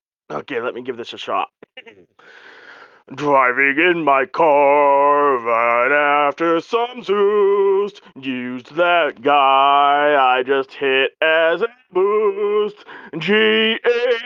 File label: voice message